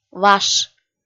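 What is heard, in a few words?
"ваш", Komi, female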